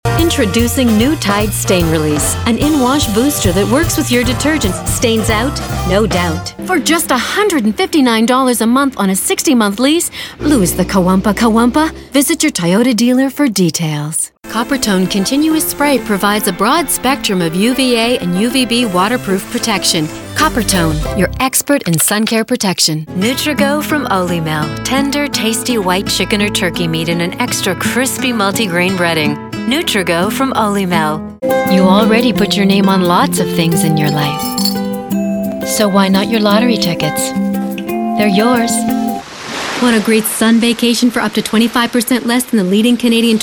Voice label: commercial female